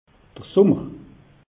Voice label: "summer", alsace; meteo

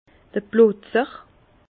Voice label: "plotzer", Bas Rhin; vin

